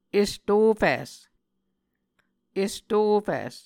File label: "estofis", Muscogee